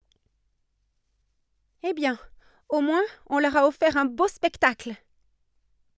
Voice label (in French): robots fr; marmite